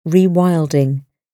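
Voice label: "rewilding", British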